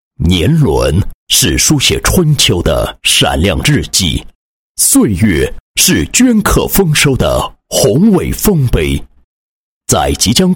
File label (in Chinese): 男 工作总结; 开门红角本 银保